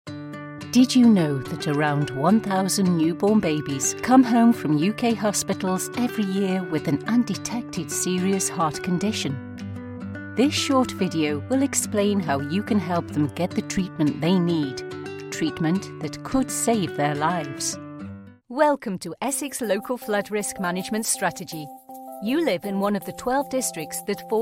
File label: British Female Voiceover Corporate